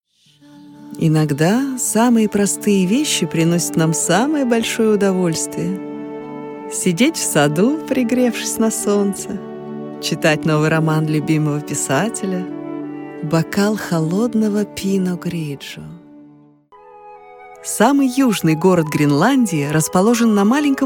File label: Russian